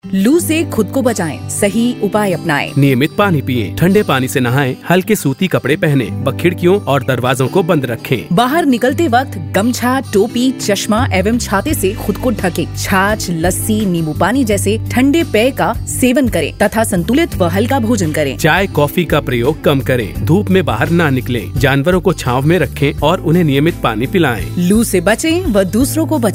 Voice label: heatwave jingles